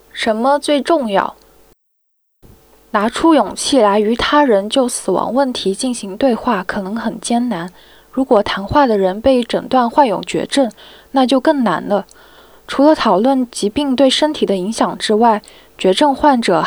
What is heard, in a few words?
Palliative Care Mandarin What Matters Most